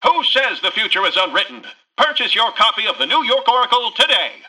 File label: Newscaster headline